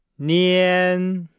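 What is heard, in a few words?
"nian", Chinese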